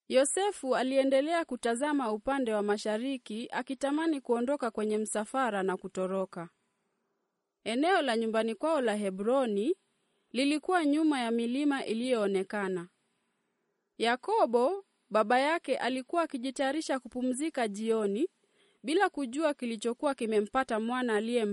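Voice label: Swahili, female, corporate; voice-over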